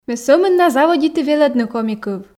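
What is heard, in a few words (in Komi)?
Komi, ме сӧмын на заводиті велӧдны коми кыв, female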